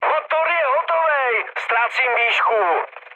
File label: damaged engine, speech cze, mood low; War Thunder